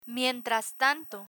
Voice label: mientras tanto, Spanish; learn spanish

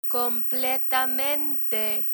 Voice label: "completamente", Spanish

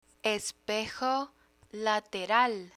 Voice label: "espejo lateral", Spanish

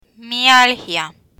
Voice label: "mialgia" Spanish; symptoms signs medical conditions; learn spanish

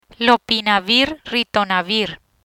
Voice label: "Lopinavir-Ritonavir", Spanish

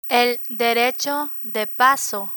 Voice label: "el derecho de paso", Spanish

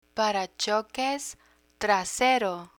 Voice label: "paracoques trasero", Spanish; picture dictionary

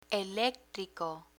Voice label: "electrico", Spanish

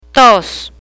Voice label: "tos", Spanish

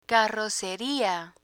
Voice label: "carroceria", Spanish; picture dictionary; learn spanish

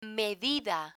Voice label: "medida", Spanish; learn spanish

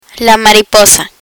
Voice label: "mariposa", Spanish; vocabulary; learn spanish

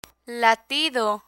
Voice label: latido, learn spanish medical medicine vocabulary

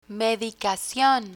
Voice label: "medicacion", Spanish; pharmacy vocabulary; learn spanish

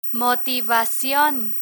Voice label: "motivacion" Spanish; human resources terminology; learn spanish

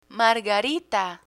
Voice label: "margarita", Spanish; gardening trees flowers; learn spanish

